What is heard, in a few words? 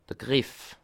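"griff", Alsatian, Bas Rhin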